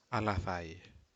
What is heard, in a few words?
Aneityum driven to fro with wind